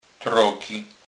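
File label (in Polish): "trogy", Kurpie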